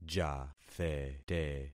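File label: "jathete", concatenated syllables; Affixing experiment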